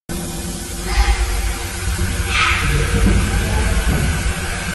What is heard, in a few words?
male whisper hey hi